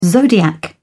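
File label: "zodiac", British English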